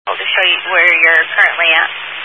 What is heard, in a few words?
Highway Patrol, Phoenix Metro West Area, scanner